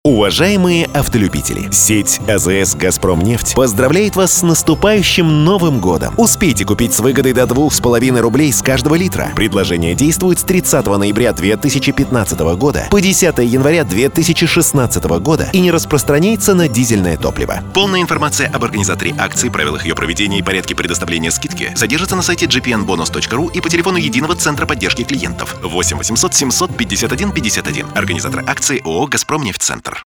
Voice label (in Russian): радио; ГАЗПРОМНЕФТЬ-НГ